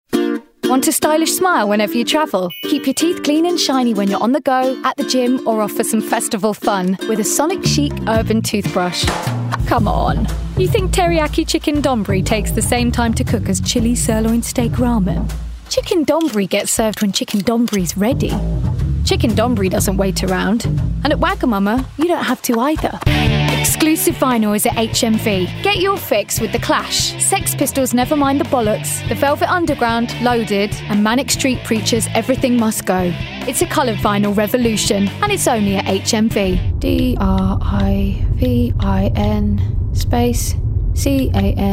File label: Commercial Voice reel